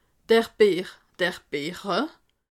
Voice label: "erdbeer", alsace

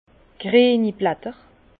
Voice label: "grieni blatter", Bas Rhin; vin; feuilles vertes

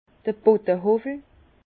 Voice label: "bodehowwel", Bas Rhin; vin; rabot de fond

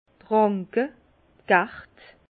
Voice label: "ranke", Bas Rhin; vin; sarment

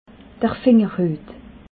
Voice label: "fingerhuet", alsace

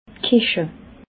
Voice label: "kicha", alsace

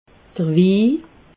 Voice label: "wi", alsace

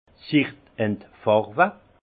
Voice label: "entfarwe", alsace; vin; se décolorer

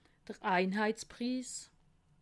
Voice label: "einheitspriss", alsace

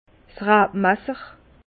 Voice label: "rabmasser", Bas Rhin; vin; serpe

